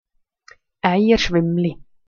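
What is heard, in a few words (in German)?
"eierschwaemmli", Swiss German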